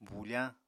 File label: "bufañ", Apatani